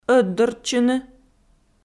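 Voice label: "ӧтдортчыны", Komi, female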